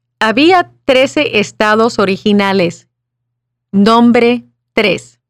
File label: Spanish